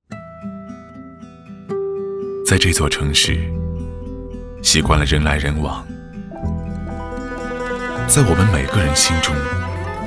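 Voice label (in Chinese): 男国大音; 深南大道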